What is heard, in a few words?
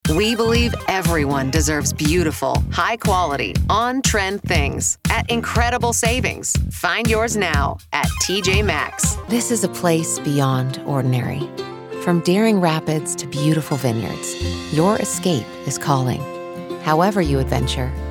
Commercial